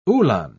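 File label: "uhlan", British English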